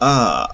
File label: near open central unrounded vowel, phoneme